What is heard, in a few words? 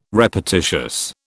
"repetitious", British